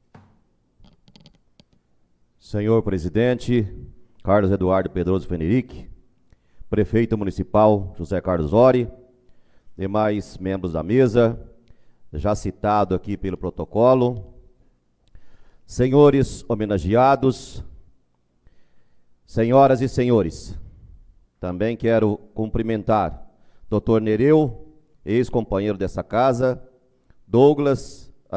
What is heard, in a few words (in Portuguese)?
Locutor